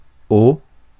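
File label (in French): "eau", French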